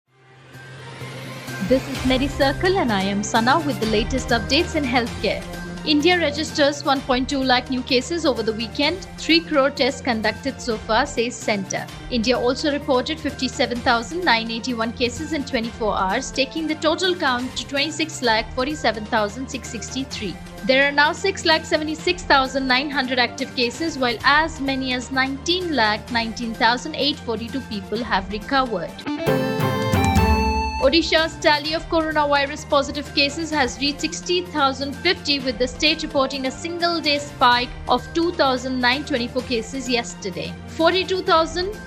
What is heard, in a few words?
english news